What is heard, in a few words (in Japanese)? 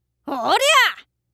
おりゃぁ！, 攻撃; 戦闘